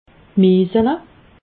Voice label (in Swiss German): "miisele", alsace